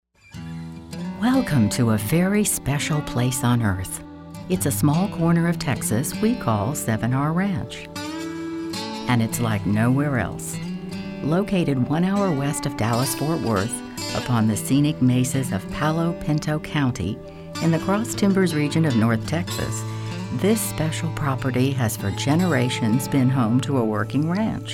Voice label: Infomercial